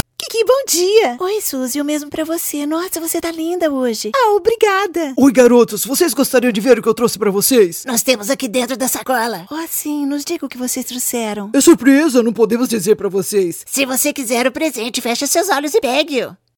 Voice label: Character

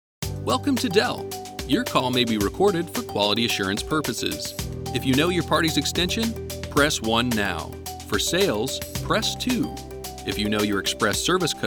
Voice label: IVR w Compression

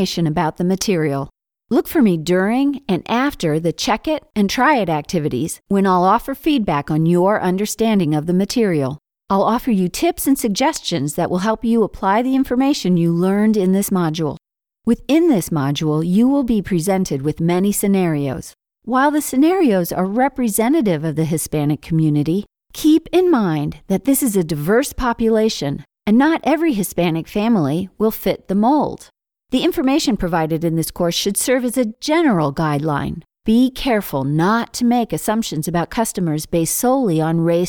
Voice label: eLearning coach

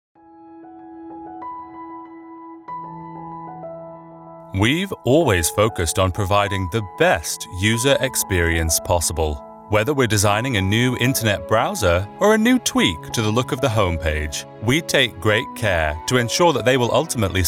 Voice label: EXPLAINER

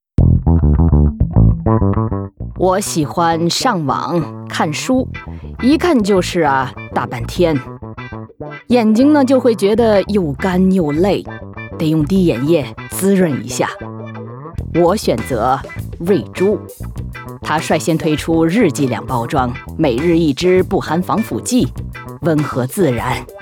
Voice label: characters elderly; English (North American)

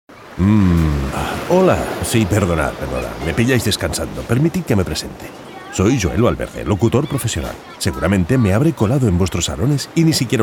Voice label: PROMO

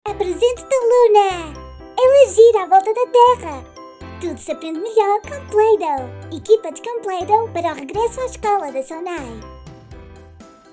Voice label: Child Character

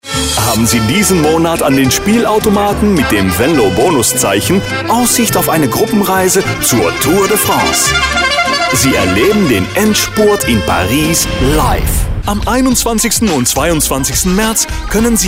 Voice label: German in store friendly

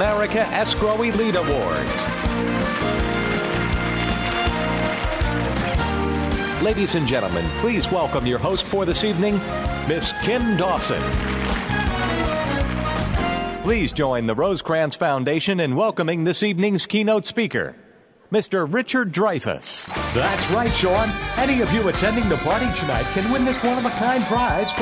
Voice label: Live Announce Session

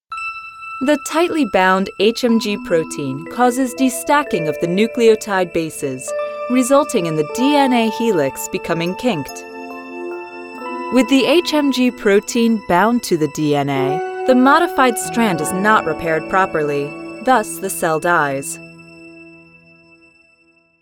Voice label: medical eLearning

